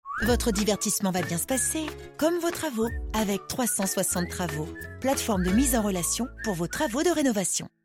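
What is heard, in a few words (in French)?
Bill Travaux, voix off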